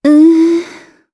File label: Deny, jp; heroes; Yuria; kingsraid